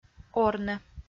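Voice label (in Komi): "орны", Komi, female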